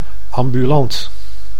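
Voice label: "ambulant", Dutch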